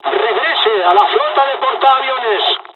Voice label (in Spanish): evac, dialogs spanish; War Thunder